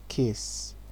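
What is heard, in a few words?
"kiss", Nigerian Pidgin, male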